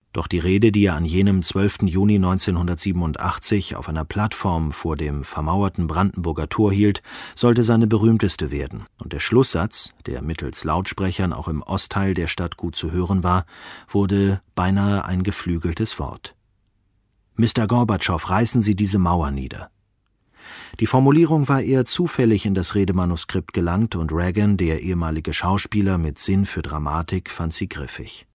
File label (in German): Hoerbuch